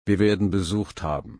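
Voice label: "besuchen", German, slow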